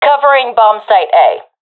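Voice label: guarding bombsite a, professional female; vo; Counter Strike Model Pack; CS:GO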